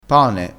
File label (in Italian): "pane", crespinese